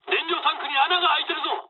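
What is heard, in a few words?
Japanese tank damaged mood low; War Thunder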